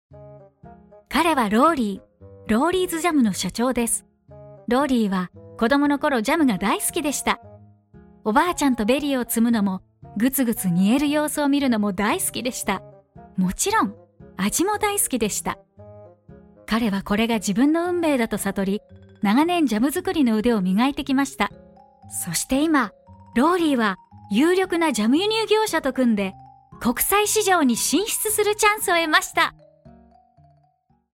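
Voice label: Japanese, Documentary; GoLocalise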